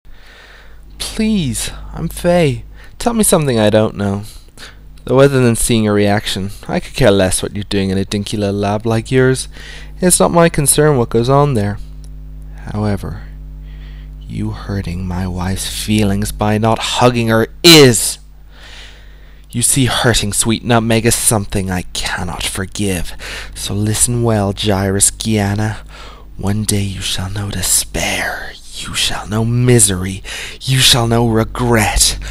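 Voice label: Albanian Voice Work; Albanion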